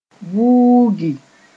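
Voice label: "vuugi", Mossi; pull towards oneself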